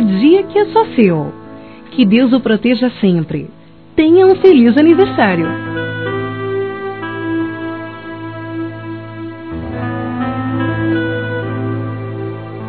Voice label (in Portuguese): Filho Criança Feminino; Aniversários; Aniv Familiar